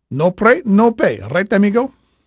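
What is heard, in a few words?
Spanish male, voice; Pirates Of The Caribbean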